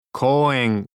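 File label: "kouen", Japanese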